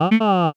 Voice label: voice yatta m